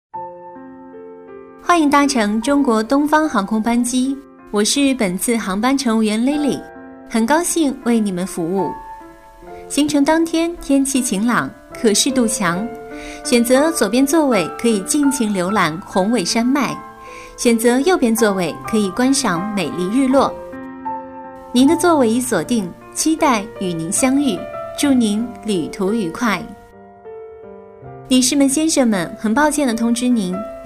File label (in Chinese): 女 航空（亲和）